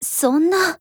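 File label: LOW MOOD, Japanese; 92typeMod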